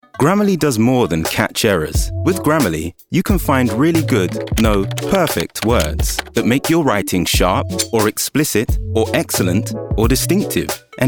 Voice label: Commercial

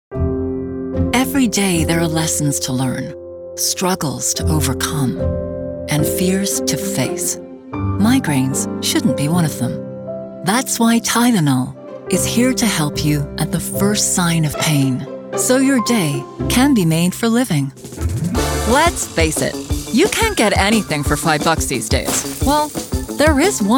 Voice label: US Commercial; versatile voice ranging from lively, bubbly to smooth, sexy and authoritativ

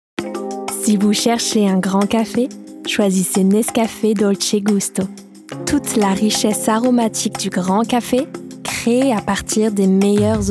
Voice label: Commercials FRENCH

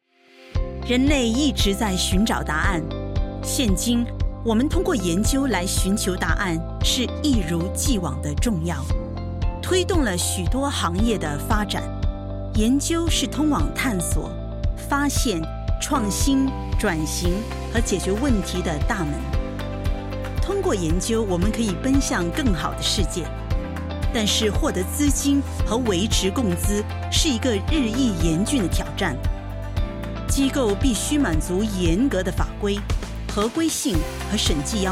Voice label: Mandarin Corporate voice

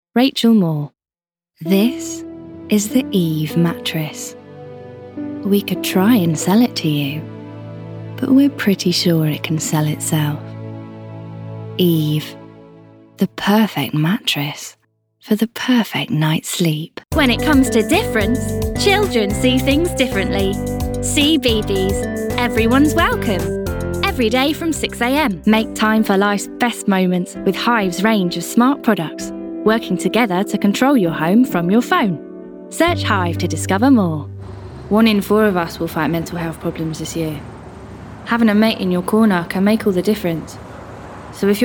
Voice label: commercial

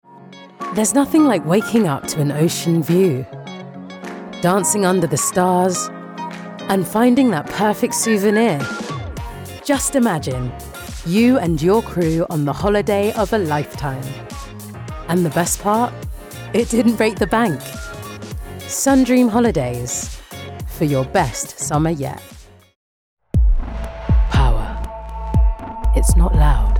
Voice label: GENERAL VOICE OVER

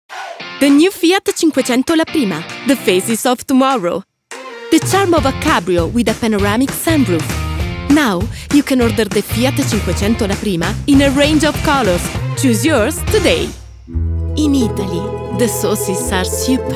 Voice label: ENGLISH Commercial

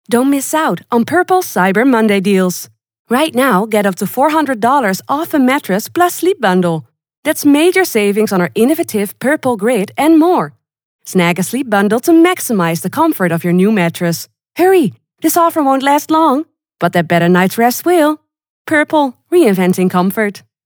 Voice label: English commercial; born in the Netherlands; professional Vocalist and Actress

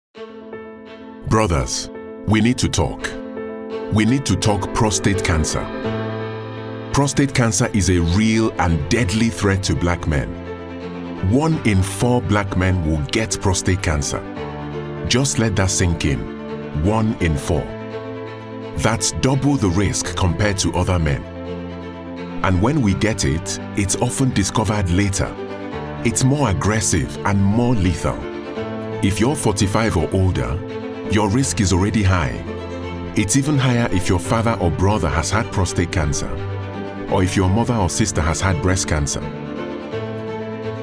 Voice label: African Reel

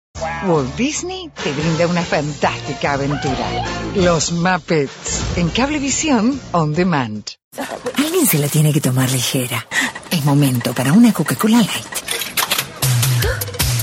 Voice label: Commercial

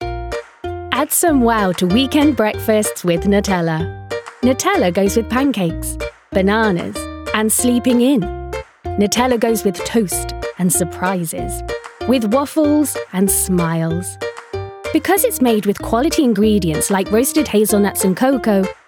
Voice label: Commercial Reel

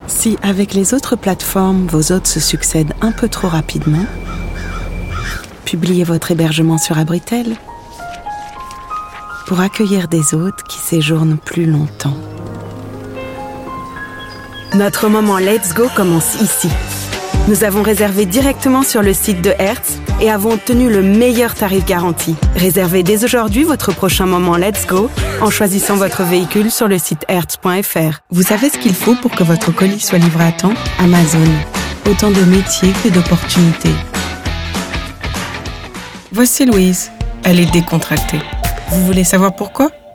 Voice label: Voice Reel FR

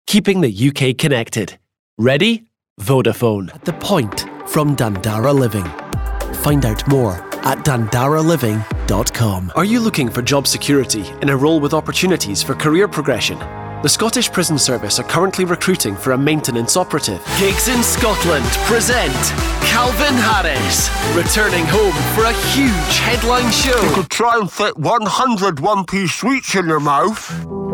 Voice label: COMMERCIAL

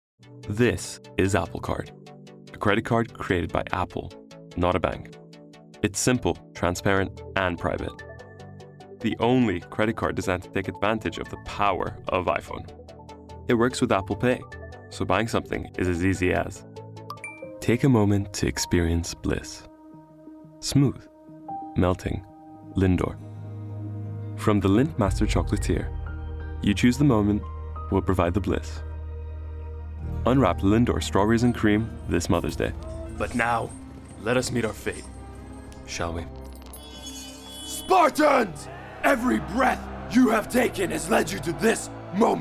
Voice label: showreel inc Arabic

